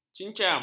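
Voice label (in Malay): "cingam", Malay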